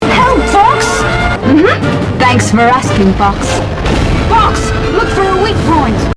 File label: English; Assault; Orbital Gate